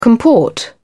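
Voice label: "comport", British English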